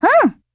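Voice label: agree, Japanese; Grizzly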